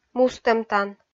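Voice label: "мустӧмтан", Komi, female; kpv audio dict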